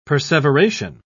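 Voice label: "perseveration", British English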